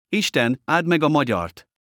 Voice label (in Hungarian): ferfi